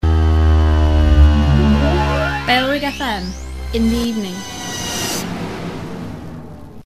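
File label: female, reverse bed; bfm in the evening; bailrigg fm; bfm jingles ads RSL 1994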